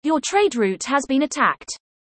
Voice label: Alert Trade Route Attack, en